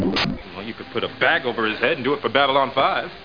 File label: STATIC; Babylon 5